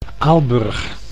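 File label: "Aalburg", Dutch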